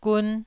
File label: "gun1", taiwanese syllable